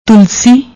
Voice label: "toolsi", Punjabi; ਤੁਲਸੀ